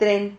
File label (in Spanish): "tren", Silabas